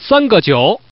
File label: Man, tuple